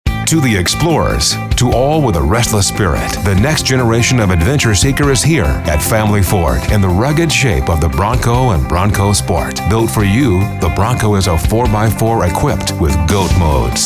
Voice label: Commercial Male